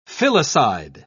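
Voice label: "filicide", English, British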